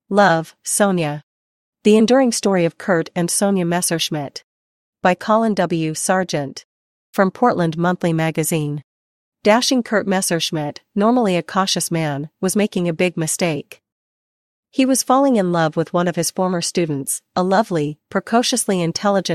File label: voiceover